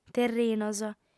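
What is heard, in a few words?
"terrinos", lingua sarda, female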